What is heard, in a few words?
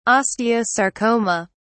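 "osteosarcoma", British English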